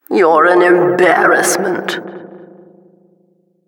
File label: Announcer last man forfeit